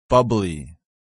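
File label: "bubbly", English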